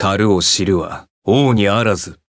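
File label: Voice Japanese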